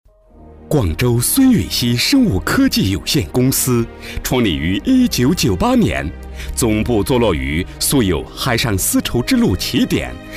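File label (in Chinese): 专题片企业介绍; 羽西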